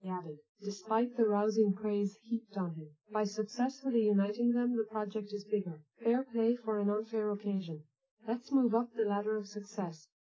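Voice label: female speech; SiSEC10; underdetermined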